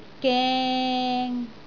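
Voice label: "geng3", Cantonese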